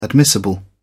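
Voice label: "admissible", British English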